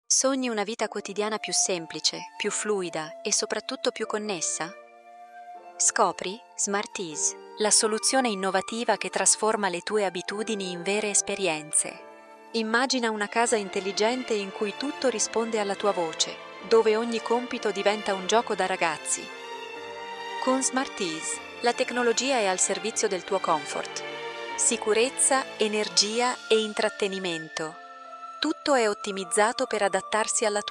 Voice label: italian commercial